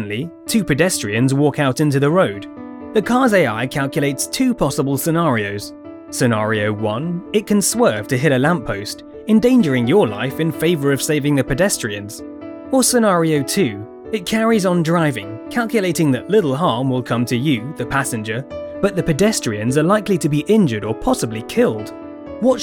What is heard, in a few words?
narration reel